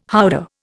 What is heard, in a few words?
"howdah", Indian